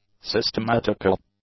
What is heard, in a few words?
"systematical", English; adjectives